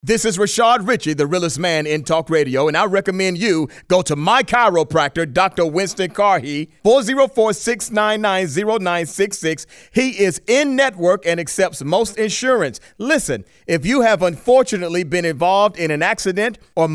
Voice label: commercial